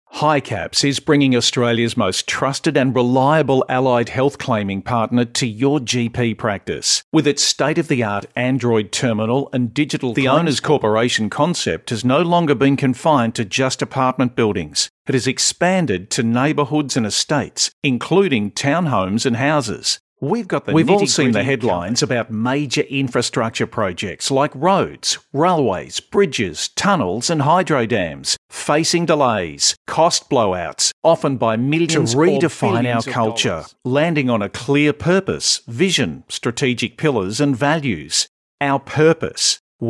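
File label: Narration, voice-overs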